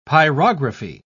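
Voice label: "pyrography", British English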